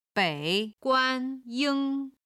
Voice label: "bei3 guan1 ying1", Chinese